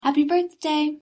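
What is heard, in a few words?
pronunciation "happy birthday", English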